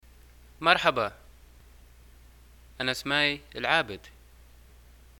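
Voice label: hi my name is, Libyan arabic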